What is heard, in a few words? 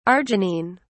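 "arginine", British English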